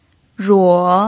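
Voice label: "ruo" Mandarin Chinese, third tone, pinyin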